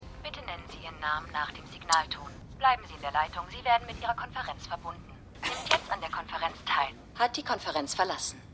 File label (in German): Telefonstimme; Succession